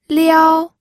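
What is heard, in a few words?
"liao", first tone, pinyin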